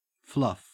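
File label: "fluff", Australian English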